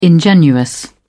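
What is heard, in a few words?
"ingenuous", British English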